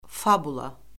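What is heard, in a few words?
"fabula", Azerbaijani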